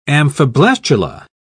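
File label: "amphiblastula", British English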